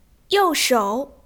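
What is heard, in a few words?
"you4shou3", Chinese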